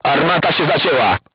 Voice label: voice message weapon destroyed, crew tanks polish